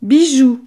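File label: "bijou", French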